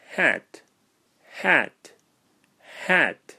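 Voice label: "Hat", English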